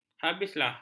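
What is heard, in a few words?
"habislah", Malay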